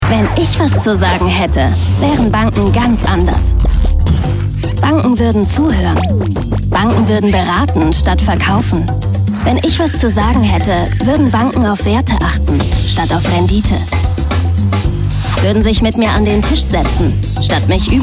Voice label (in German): Werbung; Volksbanken und Raiffeisenbanken